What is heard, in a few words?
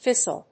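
"fissile", English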